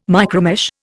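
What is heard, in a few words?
"micromesh", English, Indian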